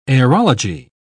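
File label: "aerology", English, uk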